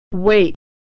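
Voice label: "wait", American English; homophones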